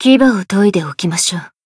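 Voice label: Voice growth Japanese; sesta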